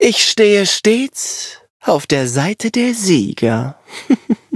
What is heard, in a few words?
victory, de; Cookie Run